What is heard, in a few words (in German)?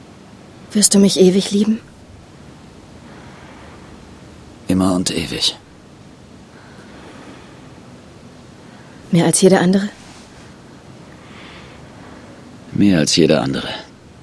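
acting, Sanft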